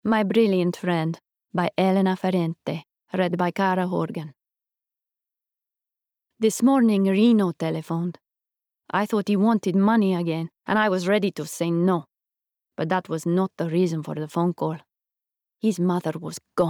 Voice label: Bookread; My Brilliant Friend by Elena Ferrante (Italian accent)